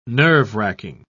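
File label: "nerve-wracking", British English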